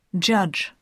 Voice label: "judge", tch dg; consonnes